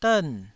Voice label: "dern4", Taiwanese